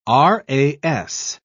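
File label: "ras", English, British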